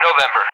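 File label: "November" English, speech; Alphabet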